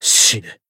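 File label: finish, Japanese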